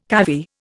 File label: "cavy", Indian